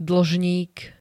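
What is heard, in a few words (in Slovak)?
"dlžník", Slovak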